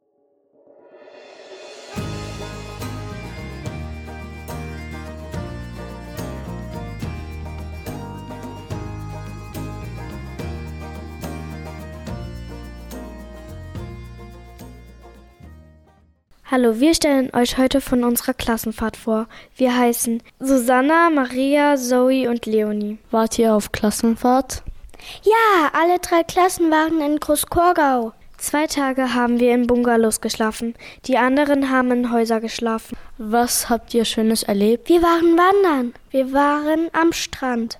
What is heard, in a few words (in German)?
Podcast; Klassenfahrt